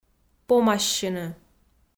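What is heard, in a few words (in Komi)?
"помассьыны", Komi, female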